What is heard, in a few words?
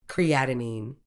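"creatinine", British English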